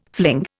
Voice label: "fling", Indian